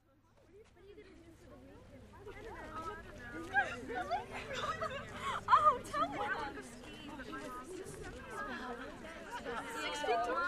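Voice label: Teen Girls Walking By, Teenage Girls Walking By, W Talking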